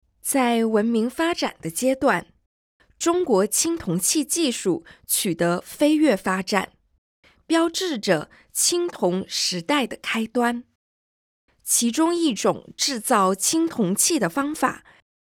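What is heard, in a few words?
Mandarin, audio guide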